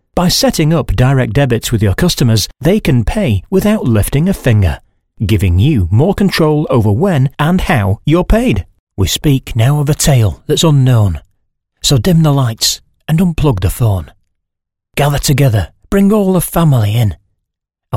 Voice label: english british narration